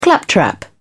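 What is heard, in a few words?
"claptrap", British English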